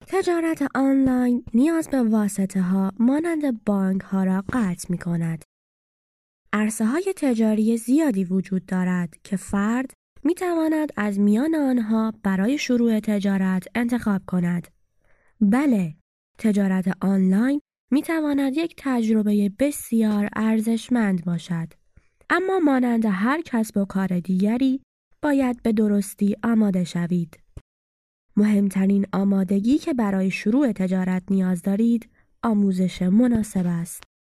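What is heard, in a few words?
Farsi female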